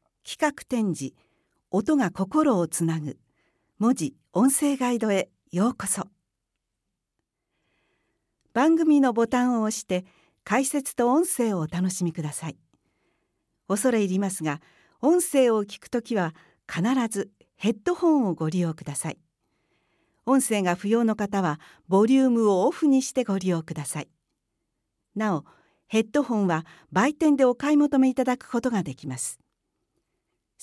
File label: female